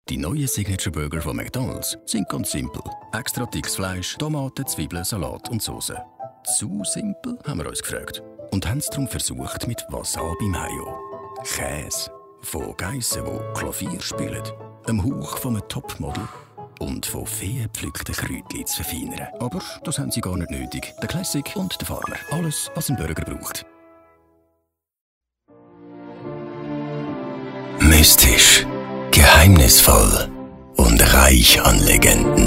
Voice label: german male voices